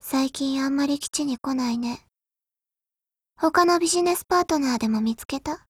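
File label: HELLO, 日本語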